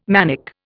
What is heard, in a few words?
"manic", Indian